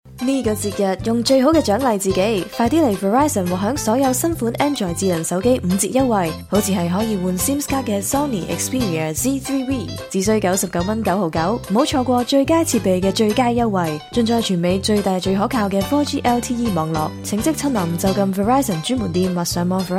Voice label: female cantonese voice over sales